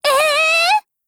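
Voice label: mood, Japanese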